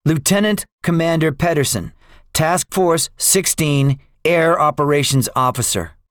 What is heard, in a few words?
debriefing, english; guadalcanal; War Thunder